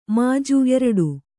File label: "ಮುಜ್ಜು", Kannada